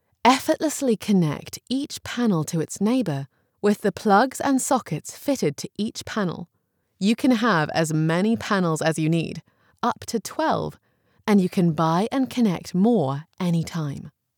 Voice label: english british explainer videos